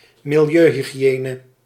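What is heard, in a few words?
"milieuhygiëne", Dutch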